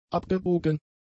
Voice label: "abgebogen", German; adjectives